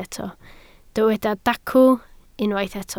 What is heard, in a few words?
"dacw", Welsh